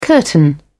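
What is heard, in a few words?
"curtain", British English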